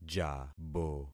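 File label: "jabo", concatenated syllables; Affixing experiment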